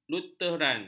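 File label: "lutheran", Malay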